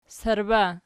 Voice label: "sarba", Archi